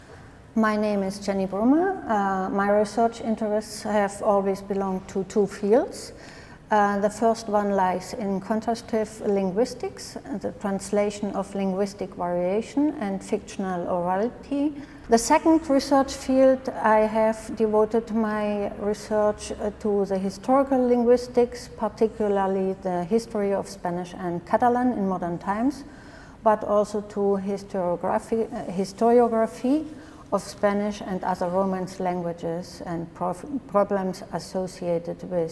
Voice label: abstract english